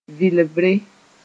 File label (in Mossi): "vɩlbre", moore